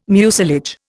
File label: "mucilage", Indian